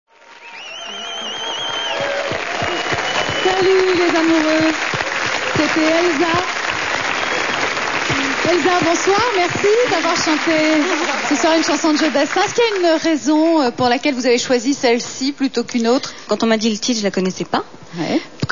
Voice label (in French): Commentaire